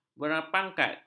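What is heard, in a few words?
"berpangkat", Malay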